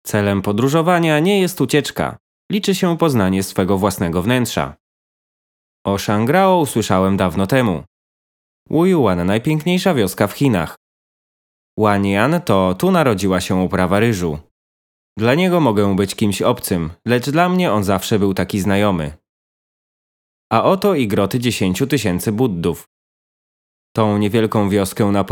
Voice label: 波兰语男, 宣传

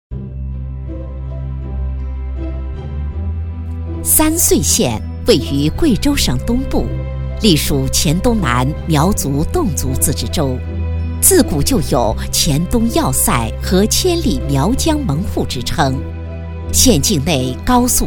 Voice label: 女 专题 地区宣传介绍; 宣传片; 普通话; 三穗县

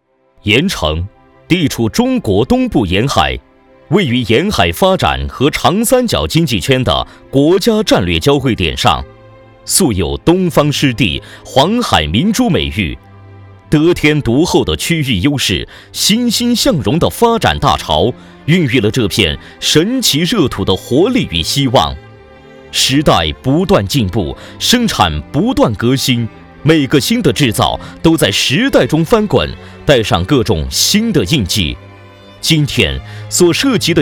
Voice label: 男 专题 汽配; 方意汽配

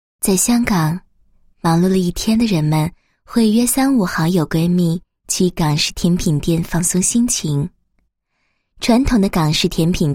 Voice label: 女 广告 港式甜品店宣传广告; 恒记良品

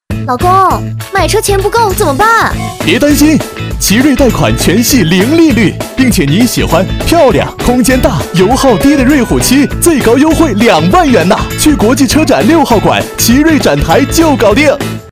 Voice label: 广告 车展; 奇瑞

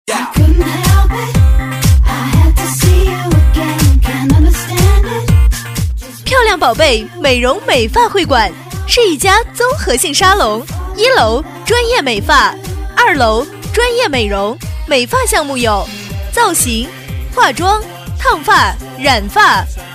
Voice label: 女 美容美发, 国语女声配音 彩铃广告

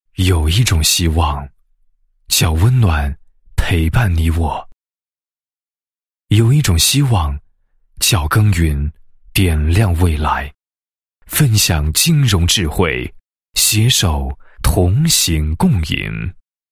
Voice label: 男 宣传广告 农村信用社宣传广告

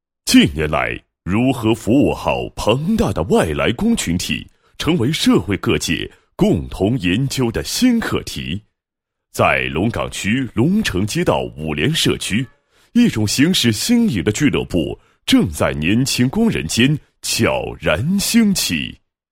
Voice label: 男 宣传广告; 华南隐形正畸科学高峰论坛

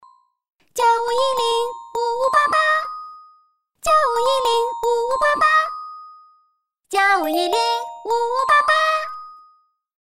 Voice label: 角色模仿 电话配唱, 国语女声配音

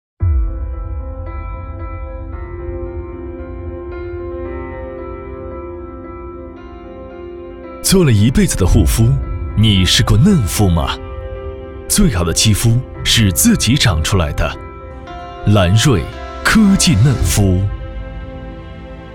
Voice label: 男 科技感; 兰瑞科技

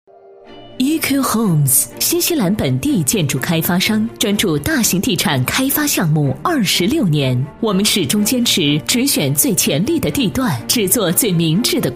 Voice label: 女4号 房地产 国外地产, 国语女声配音; 优雅; EQ homes Man SD

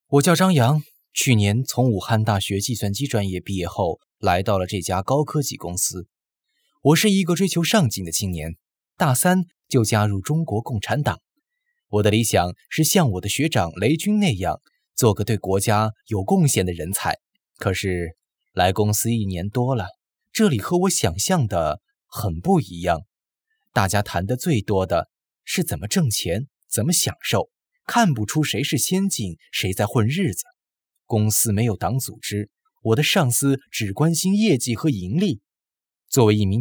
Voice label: 旁白

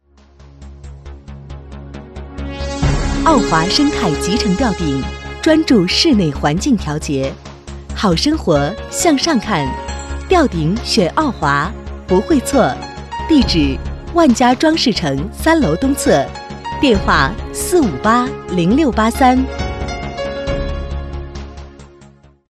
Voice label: 女, 广告大气, 吊顶配乐后

